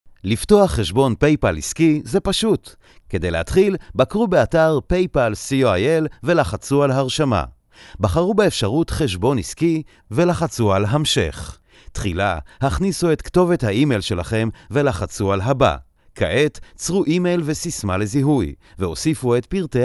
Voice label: hebrew corporate videos